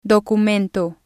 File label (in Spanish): "Documento", spanish